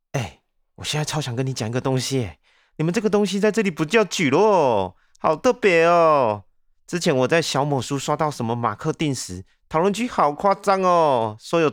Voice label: 方言台湾腔

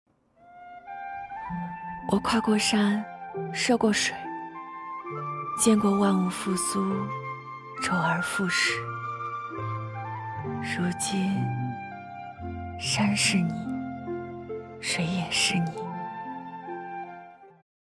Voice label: 柔情四溢声

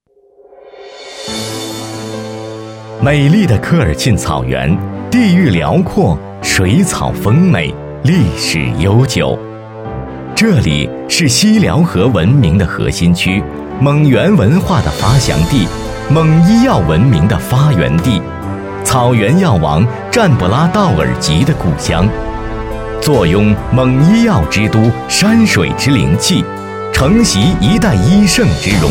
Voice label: 企业 宣传 类

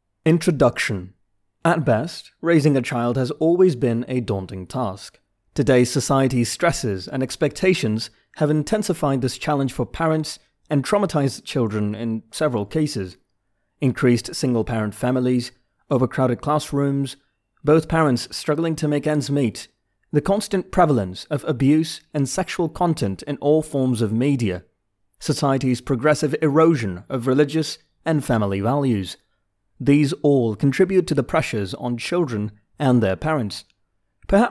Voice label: 电子书口语化

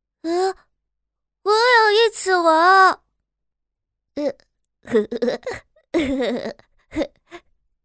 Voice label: 正太 呆萌

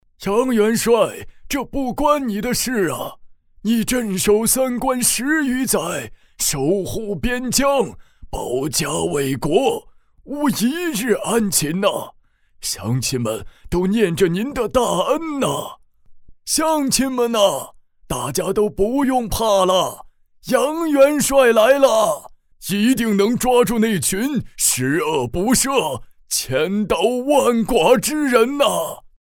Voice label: 老者; 皇帝迎宾客私访记

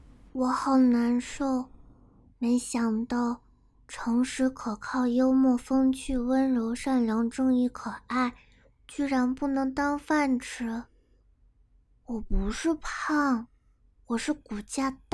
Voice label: 萝莉

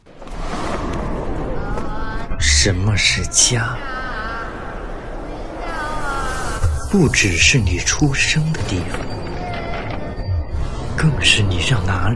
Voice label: 纪录片

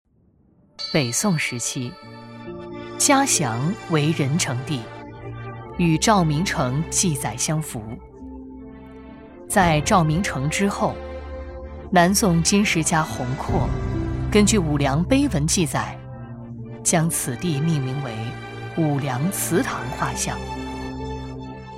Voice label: 纪录片历史厚重感; 武梁祠

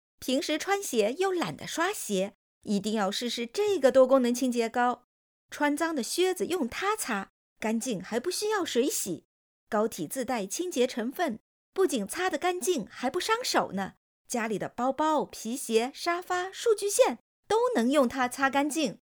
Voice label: 清洁膏广告

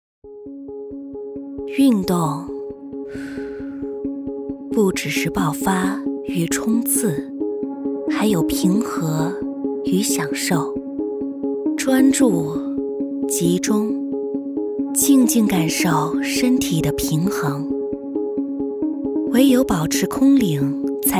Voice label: 瑜伽冥想运动广告

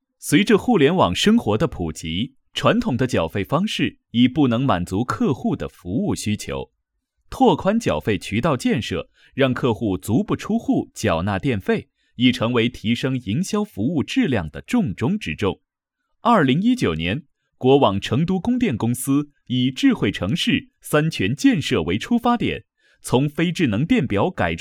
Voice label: 企业宣传电力解说; 成都供电公司交电费用手机样音

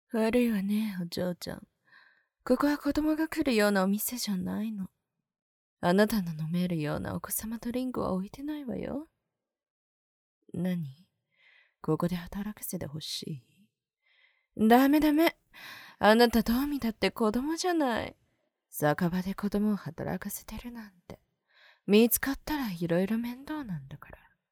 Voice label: 姐系

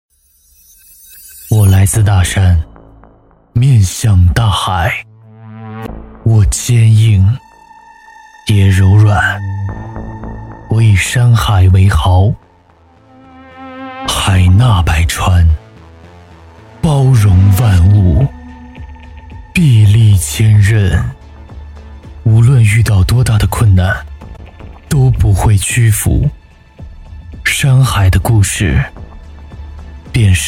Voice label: 地产专题 高端质感; 万科地产

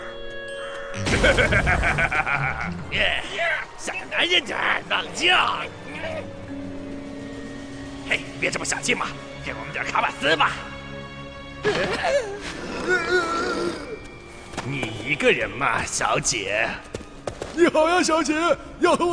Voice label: 动画声线

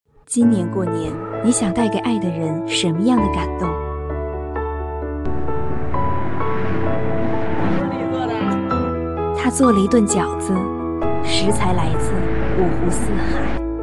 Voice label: 广告

